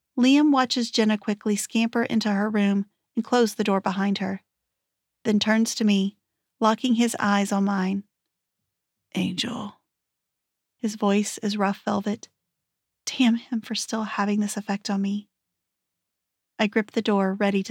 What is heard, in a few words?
Romance, M F